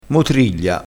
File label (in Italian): "motriglia", crespinese